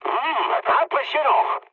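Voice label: French trick mood med; War Thunder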